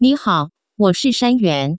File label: nihao woshi, Chinese, Taiwan, phone call